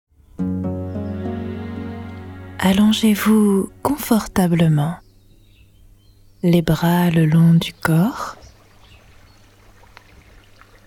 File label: Relaxation